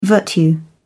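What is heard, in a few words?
"virtue", English, British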